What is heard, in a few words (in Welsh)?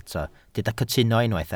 "cytuno", Welsh